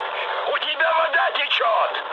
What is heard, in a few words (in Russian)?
damaged water, mood high, speech ru; War Thunder